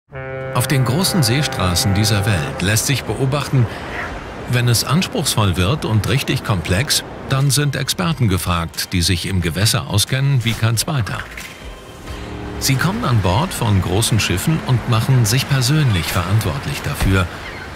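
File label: Voiceover; Ikor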